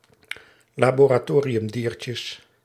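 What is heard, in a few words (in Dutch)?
"laboratoriumdiertjes", Dutch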